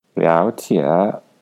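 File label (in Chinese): "liaojie", Mandarin Chinese; 了解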